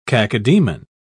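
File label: "cacodemon", British English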